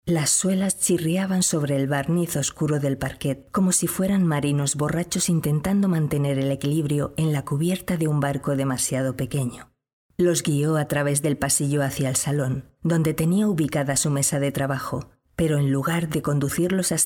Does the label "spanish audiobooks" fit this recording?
Yes